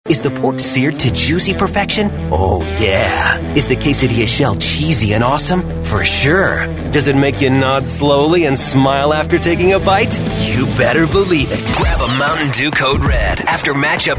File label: english american voice over male commercial